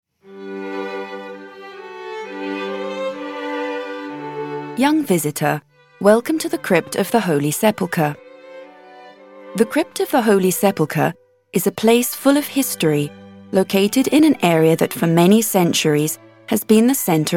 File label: Audioguida ENG